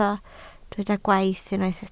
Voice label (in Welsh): "gwaith", Welsh